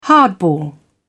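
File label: "hardball", British English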